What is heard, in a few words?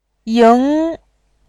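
"ying", Mandarin Chinese, tone 2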